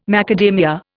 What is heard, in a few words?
"macadamia", Indian